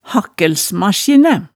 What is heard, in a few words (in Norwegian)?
"hakkælsmasjinæ", hemsedalsmaalet